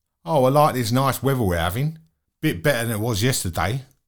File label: Cockney